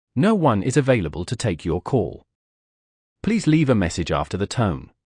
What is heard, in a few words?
voicemail, phone british